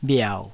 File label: "bhiau3", taiwanese